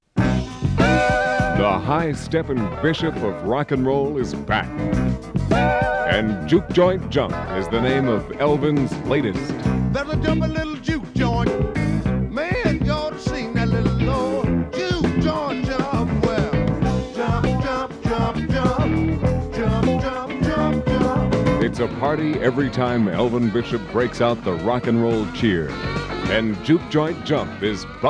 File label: Radio Commercials; 1975